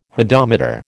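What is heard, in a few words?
"hodometer", American English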